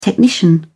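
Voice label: "technician", British English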